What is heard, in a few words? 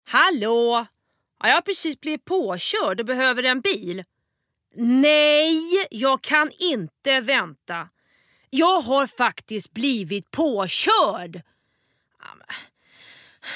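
Swedish, female, karaktar; character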